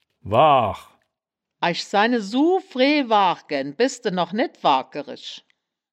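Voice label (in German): "waach", Wittlicher Wörterbuch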